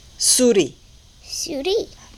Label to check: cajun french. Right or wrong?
Right